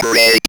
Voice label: ROB VOX